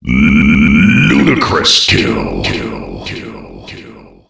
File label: ludicrous kill, Announcer Voice Lines; Unreal Tournament